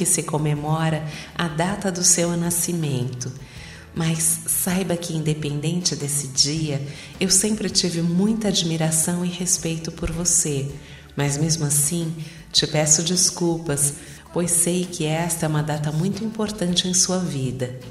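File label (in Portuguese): ANIV ATRASADO PRIMO, telemensagem aniversario atrasado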